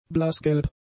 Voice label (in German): "blassgelb", German; adjectives